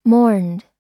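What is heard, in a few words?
"mourned", American English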